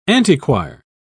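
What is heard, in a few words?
"antechoir", British English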